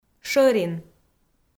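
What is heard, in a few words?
"шӧрин", Komi, female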